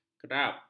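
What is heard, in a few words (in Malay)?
"kerap", Malay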